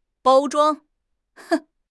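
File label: "包装", Chinese; HSK6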